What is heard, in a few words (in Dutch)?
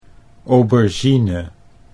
"aubergine", Dutch